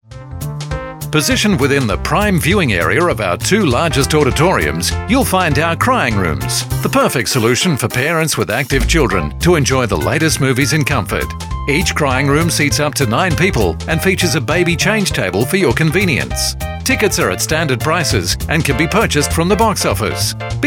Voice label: messages on hold; industry; entertainment leisure